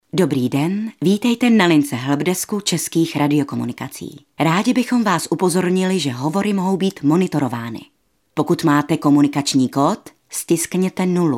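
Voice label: Female Czech IVR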